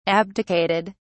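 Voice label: "abdicated", British English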